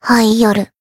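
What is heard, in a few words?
attack, Japanese; nagi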